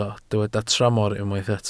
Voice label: "tramor", Welsh

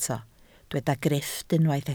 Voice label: "grifft", Welsh

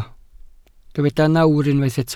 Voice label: "nawr", Welsh

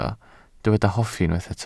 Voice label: "hoffi", Welsh

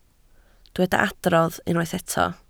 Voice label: "adrodd", Welsh

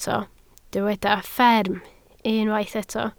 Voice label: "fferm", Welsh

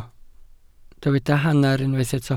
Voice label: "hanner", Welsh

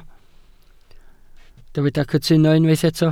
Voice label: "cytuno", Welsh

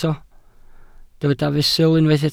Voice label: "fesul", Welsh

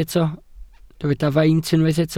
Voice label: "faint", Welsh

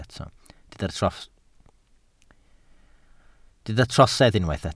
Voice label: "trosedd", Welsh

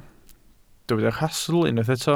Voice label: "rhastl" Welsh

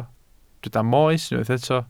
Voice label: "moes" Welsh

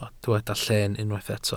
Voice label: "lleen" Welsh

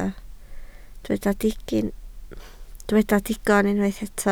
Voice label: "digon", Welsh

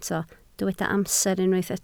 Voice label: "amser", Welsh

